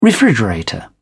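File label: "refrigerator", English, uk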